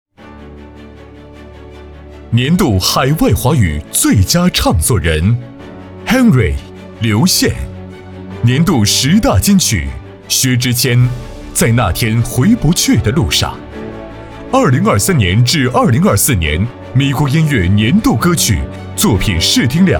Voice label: 男, 颁奖; 咪咕音乐颁奖盛典

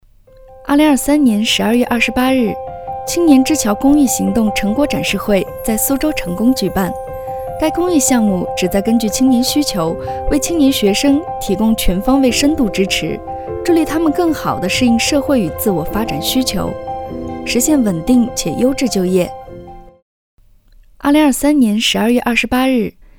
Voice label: 女 专题 年轻 自然

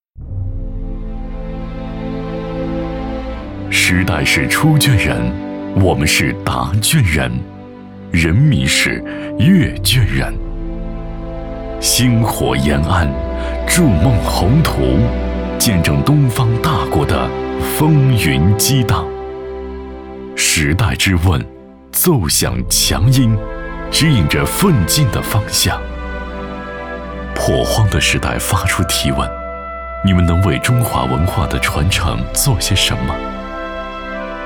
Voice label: 男 专题; 致敬时代答卷人

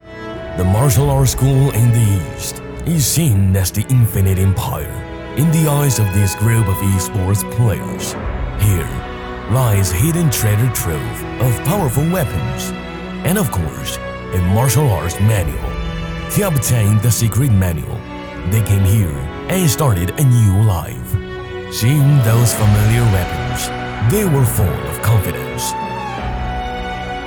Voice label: 男 英语; 2024永劫无间锦鲤杯

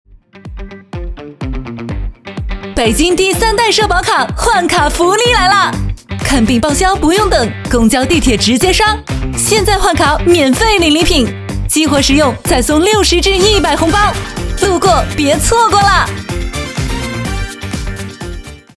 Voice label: 女 促销; 北京三代社保卡

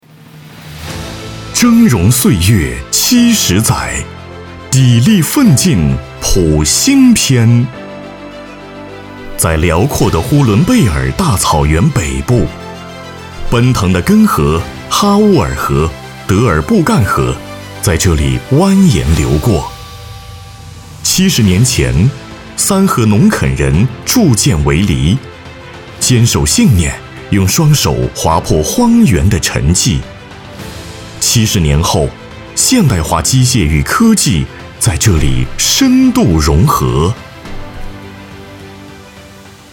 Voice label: 男 专题

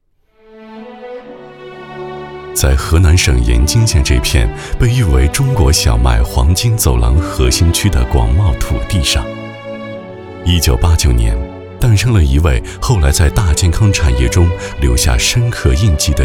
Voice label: 男 纪录人物

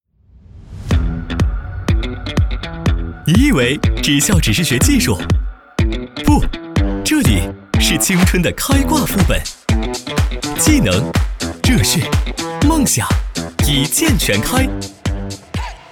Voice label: 男 活泼 年轻宣传

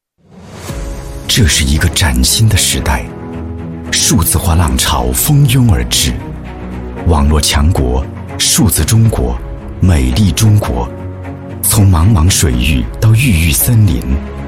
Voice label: 男 专题 大气浑厚自然; 中国铁塔

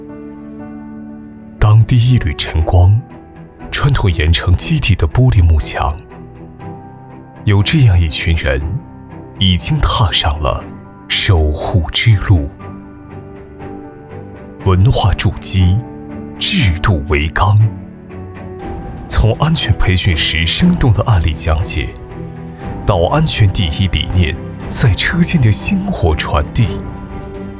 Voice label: 男 走心 深沉

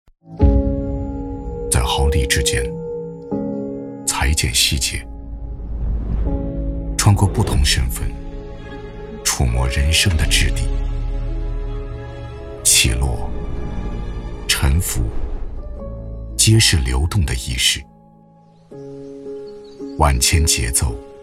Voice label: 男 广告 总裁自然自述; 卡萨帝指挥家

